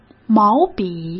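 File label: "毛笔" Mandarin Chinese; chinese flashcards; hsk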